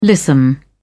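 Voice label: "lissome", British English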